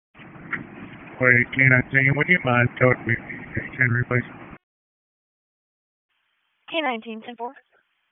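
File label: Dispatch 1, P25; WSO DISP 1; wake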